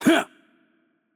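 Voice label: attack light, Russian; bloom minigame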